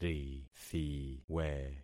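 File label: "rithiwe", concatenated syllables; Affixing experiment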